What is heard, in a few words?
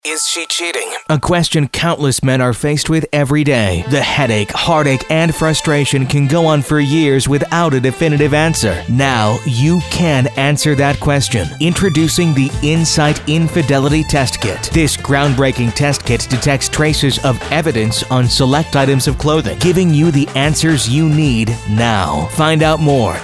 Radio Ad